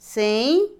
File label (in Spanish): bebida fermentada, Otomí, mujer; Comida; INALI